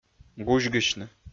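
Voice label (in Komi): "гузьгысьны", Komi, male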